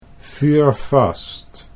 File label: "vuurvast", Dutch